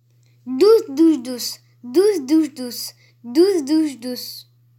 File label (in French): Virelangue